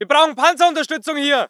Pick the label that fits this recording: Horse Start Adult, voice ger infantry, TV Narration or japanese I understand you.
voice ger infantry